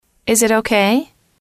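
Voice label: "is it ok", English, female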